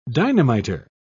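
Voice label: "dynamiter", British English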